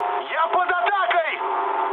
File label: aircraft damaged, mood med, speech ru